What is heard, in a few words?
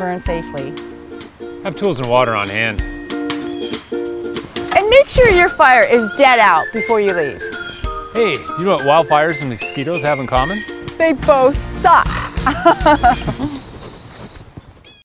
Radio PSA Campfire Safety